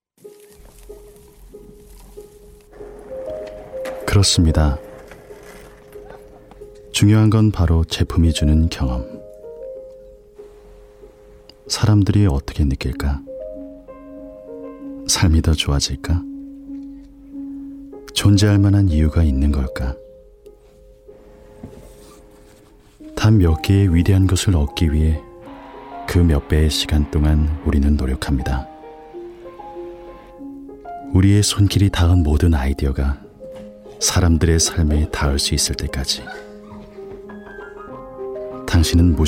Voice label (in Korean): 성우 잔잔한 나레이션